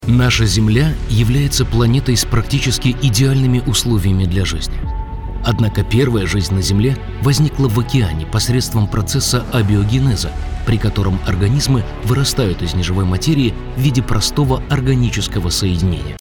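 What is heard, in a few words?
zg informative, Russian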